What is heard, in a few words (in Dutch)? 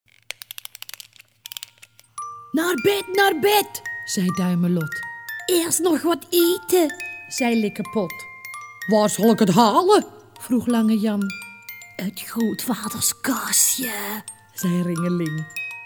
Naar bed, naar bed, zei Duimelot, Rijmpjes en versjes voorgelezen